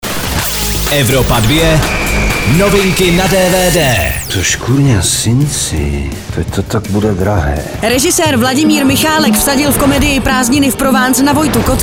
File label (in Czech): dvd news; evropa2; prazdniny v provence